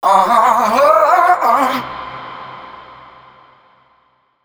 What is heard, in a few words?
male 130, Dance Ultra Voice adlips; Mutekki Media; Dance Ultra Voice VOL-1